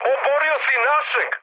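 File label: Serbian player killed ally mood high, speech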